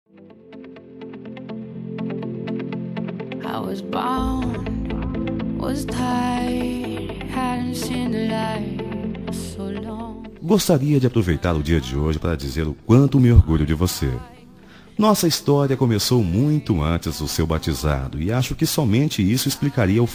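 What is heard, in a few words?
male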